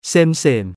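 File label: "same same", Thai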